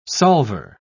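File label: "solver", English, British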